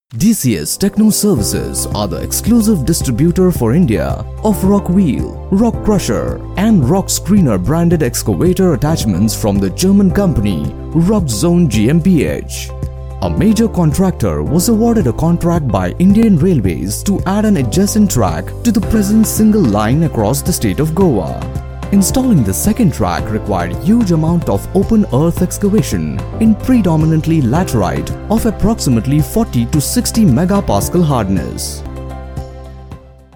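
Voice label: English Corporate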